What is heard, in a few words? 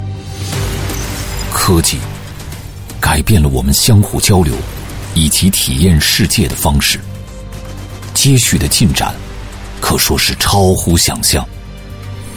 Mandarin China futuristic